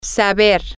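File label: Spanish, to know how, female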